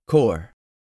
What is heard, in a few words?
"core", english; 3000 words